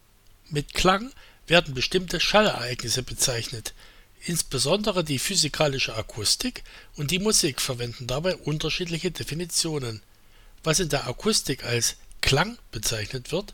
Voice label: Microphone Test